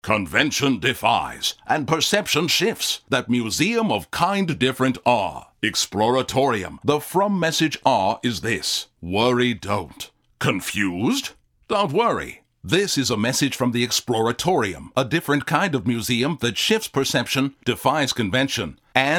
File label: male; Pandora Radio